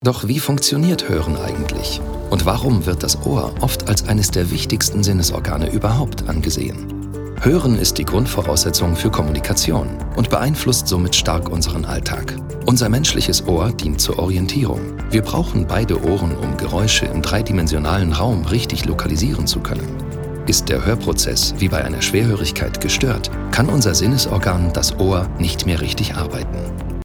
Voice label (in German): Doku, DE; Hoeren; german voice actor